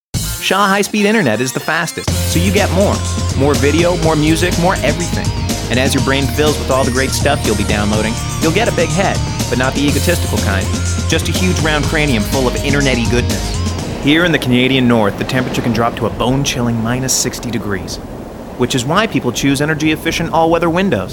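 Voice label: commercial voiceovers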